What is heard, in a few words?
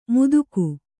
"ಮುದುಕು", kannada